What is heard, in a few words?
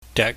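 "dek", Cantonese, low tone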